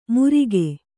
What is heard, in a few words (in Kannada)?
"ಮುರಿಗೆ", Kannada